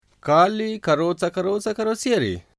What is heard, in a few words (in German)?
albanisch